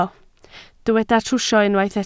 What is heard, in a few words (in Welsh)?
"trwsio" Welsh